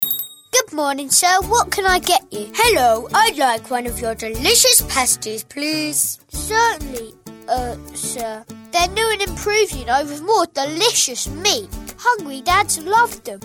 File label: Kidztalk